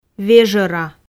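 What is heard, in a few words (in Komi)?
"вежӧра", Komi, female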